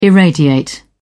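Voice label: "irradiate", British English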